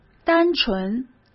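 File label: "单纯", Mandarin Chinese; chinese-flashcards; hsk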